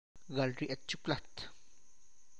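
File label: Tlacochahuaya Zapotec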